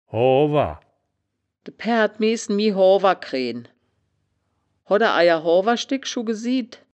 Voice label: "hoawer", wittlich; das wittlicher woerterbuch; woerterbuch vertonung

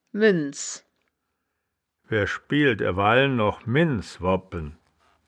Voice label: "minz", wittlicher woerterbuch; das wittlicher woerterbuch; woerterbuch vertonung

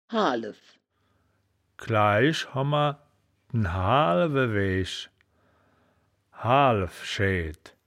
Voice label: "haalw", wittlich

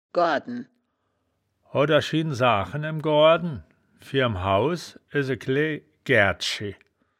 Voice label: "goarden", wittlich; das wittlicher woerterbuch; woerterbuch vertonung

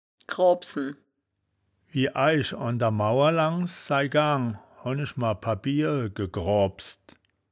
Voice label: "groabpsen", wittlich

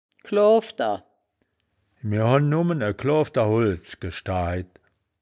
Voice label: "kloafder", wittlich

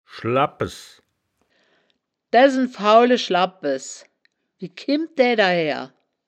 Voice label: "schla bbpes", wittlich

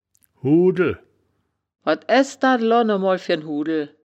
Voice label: "huudel", wittlich

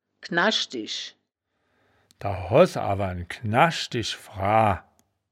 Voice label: "knasch disch", wittlich

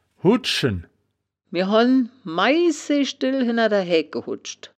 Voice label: "huddschen", wittlich

